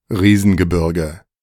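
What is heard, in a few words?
"Riesengebirge", German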